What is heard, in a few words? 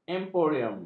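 "emporium", Malay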